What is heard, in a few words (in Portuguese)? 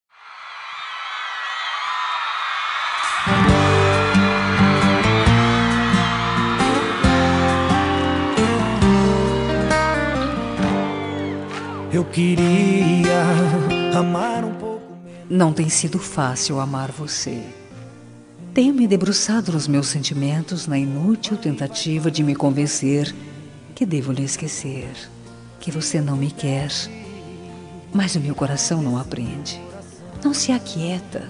nao correspondido fem, Telemensagem Amor Não Correspondido, Voz Feminina